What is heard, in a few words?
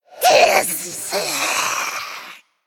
Female Forsaken Death